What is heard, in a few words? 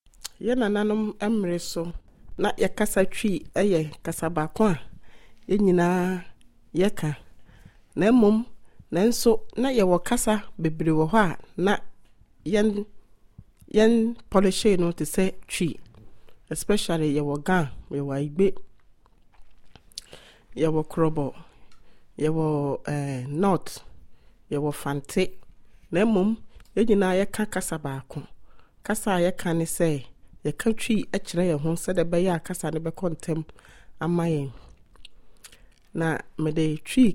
Twi